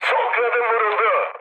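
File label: Turkish left wing, mood high, speech; War Thunder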